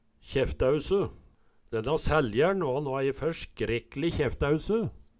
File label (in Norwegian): kjeftaeuse, Numedalsmål